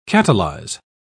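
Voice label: "catalyse", British English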